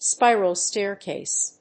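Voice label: "Spiral staircase", English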